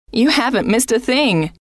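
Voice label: you Haven T Missed A Thing, female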